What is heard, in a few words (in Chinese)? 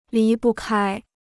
"离不开", Chinese